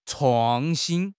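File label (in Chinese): "创新", Chinese; HSK6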